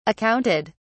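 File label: "accounted", British English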